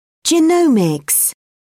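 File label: "genomics", British English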